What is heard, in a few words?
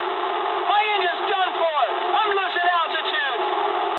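damaged engine mood low, speech English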